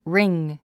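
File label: "wring", English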